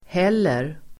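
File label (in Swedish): "häller", Swedish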